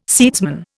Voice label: "seedsman", Indian